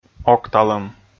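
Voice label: "окталӧм", Komi, male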